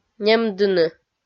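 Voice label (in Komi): "немдыны" Komi, female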